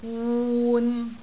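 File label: "wun6", Cantonese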